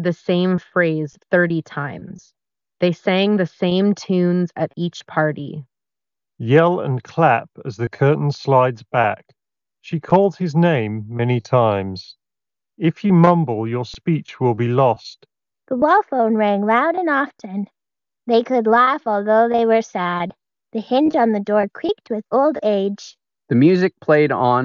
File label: Skullcandy Indy Evo Ideal microphone sample